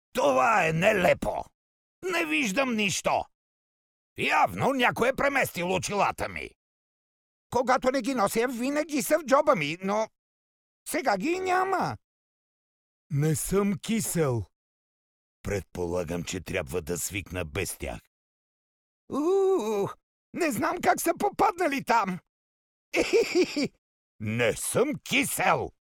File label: bulgarian m character, voice; voice demos